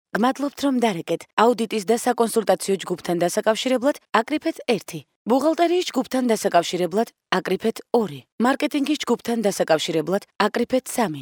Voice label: Georgian female